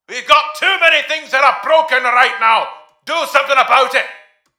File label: repair reminder less angry; svencoop; mustard; maxwell